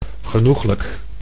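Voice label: "genoeglijk", Dutch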